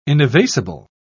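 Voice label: "inevasible", British English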